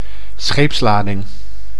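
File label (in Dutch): "scheepslading", Dutch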